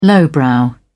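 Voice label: "lowbrow", British English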